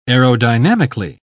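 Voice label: "aerodynamically", British English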